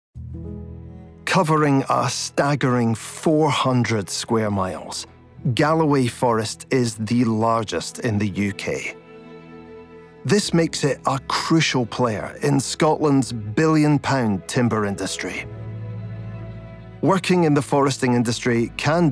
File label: Documentary